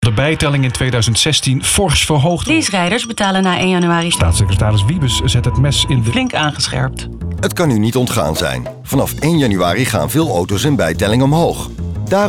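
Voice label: Dutch, advert, Variabel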